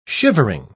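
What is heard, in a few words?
"shivering", British English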